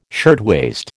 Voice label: "shirtwaist", American